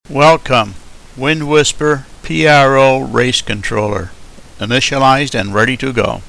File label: welcome message, Male English